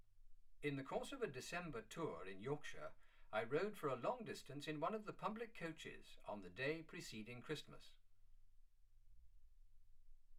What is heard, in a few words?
male speech english